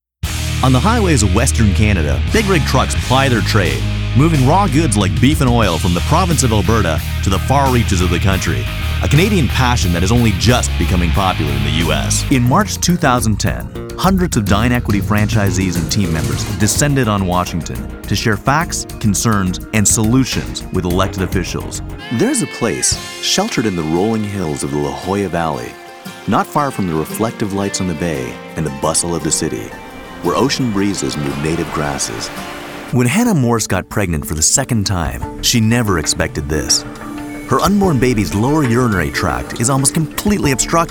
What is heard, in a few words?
Narration